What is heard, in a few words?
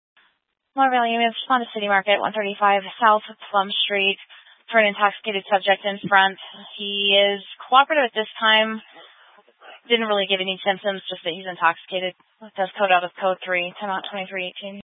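Fire Dispatch, Scanner audio recording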